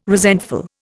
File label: "resentful", Indian